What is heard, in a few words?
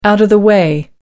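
"out of the way", British English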